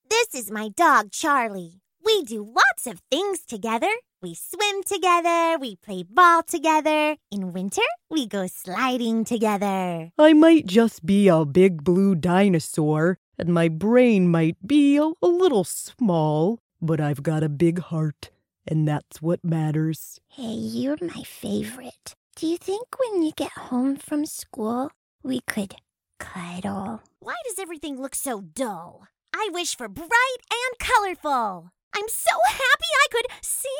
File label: character sample